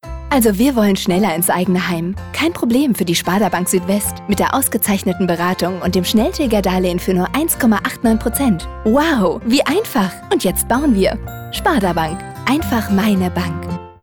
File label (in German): freundlich, radio